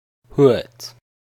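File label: "Huert", Luxembourgish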